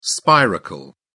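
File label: "spiracle", British English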